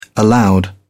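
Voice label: "aloud", British English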